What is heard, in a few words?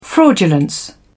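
"fraudulence", British English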